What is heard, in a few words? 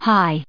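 "high", US English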